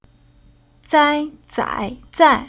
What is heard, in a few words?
"Zai", Chinese